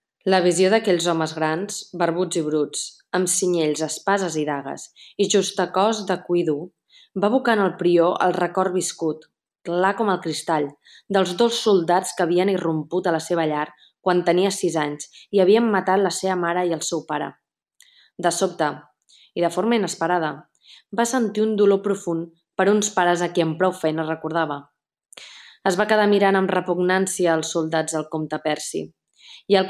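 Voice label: Catalan; GoLocalise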